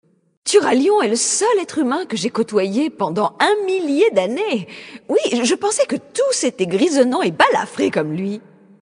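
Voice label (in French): Female Flirt, voix françaises; Draenei Sancteforge; Turalyon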